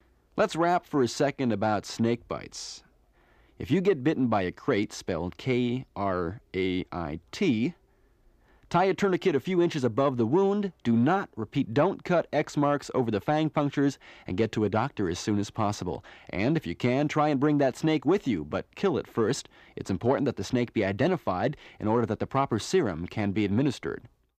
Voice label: Radio Spot: Snakebite Warning And Chu Hoi Advisory; Mono